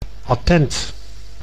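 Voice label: "attent", Dutch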